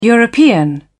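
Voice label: "european", British English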